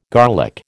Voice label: "garlic", American